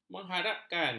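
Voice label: "mengharapkan", Malay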